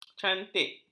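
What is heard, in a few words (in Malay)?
"cantik", Malay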